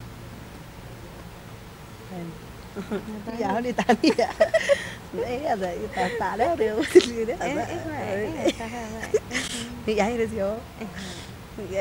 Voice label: Ladies Talk, spanish

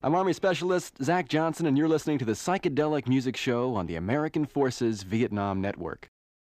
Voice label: Radio Spot: Introduction And Bumper Music; 'psychedelic Music Show'; Mono

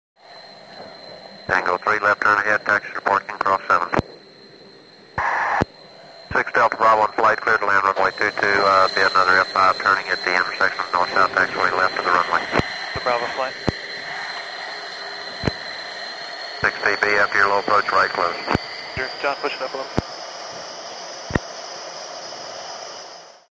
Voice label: Landing Exchange, radio

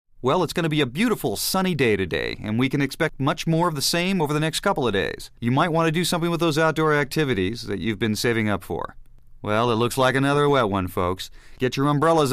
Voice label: Tv Male Weather Repo, news

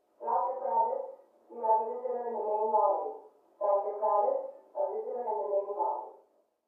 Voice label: Hospital Pa; Short Announcement, From Next Room.

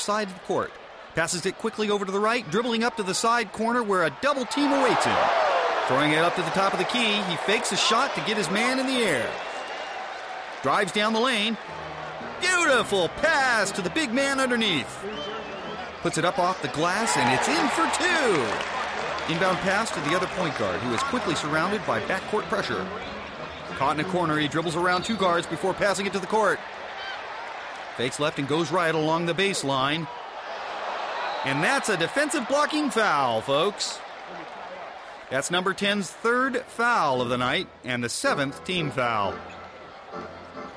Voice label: Tv Basketball Game

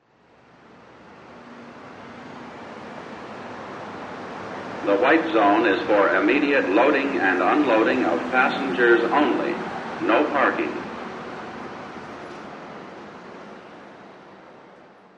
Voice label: No Parking Announcem, ext airport

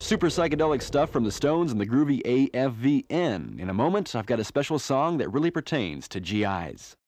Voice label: Radio Spot: 'keep Saigon Beautiful.'; Mono

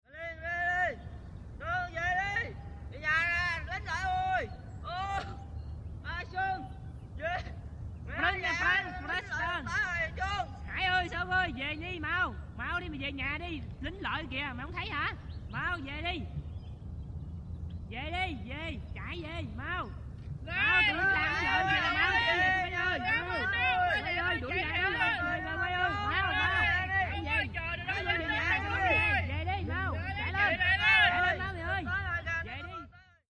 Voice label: Viet Men Talk To Each O, foreign various

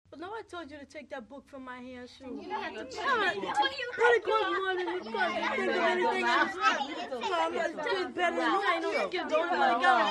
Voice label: 5 Kids Arguing

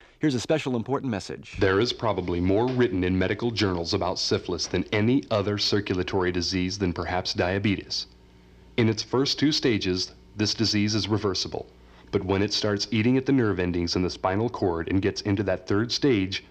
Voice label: Radio Spot, radio voice over

